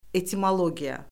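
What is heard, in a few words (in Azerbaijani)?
"etimologiya", Azerbaijani; Orfoqrafiya Lüğəti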